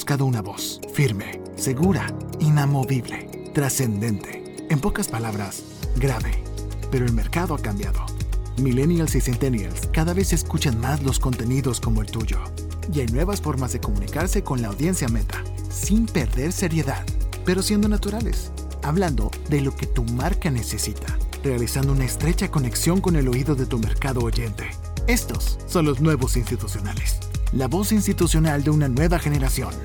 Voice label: spanish latin american corporate videos